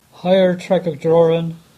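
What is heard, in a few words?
"Higher Tregajorran", English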